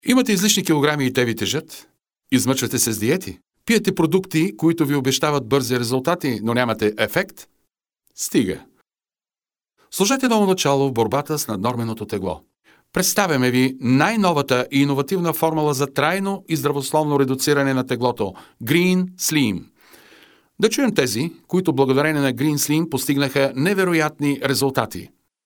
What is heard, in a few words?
male bulgarian voice over sales